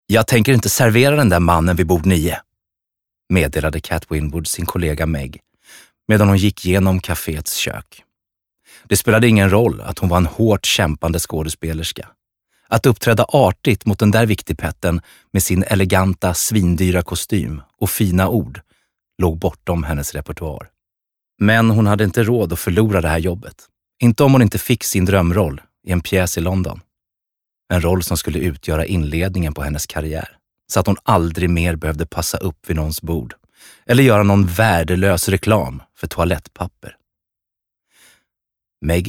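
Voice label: Swedish audiobook male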